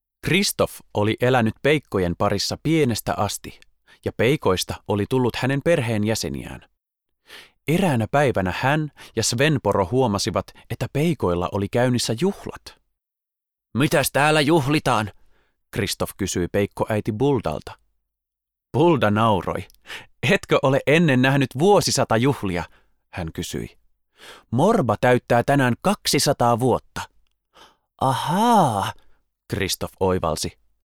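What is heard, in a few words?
finnish male character; voice talents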